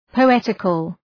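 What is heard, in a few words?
"poetical", English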